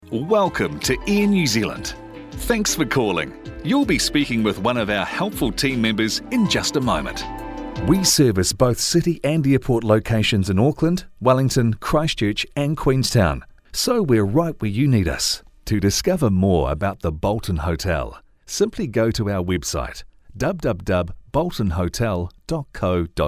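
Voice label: On-Hold Messages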